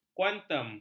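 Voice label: "kuantum", Malay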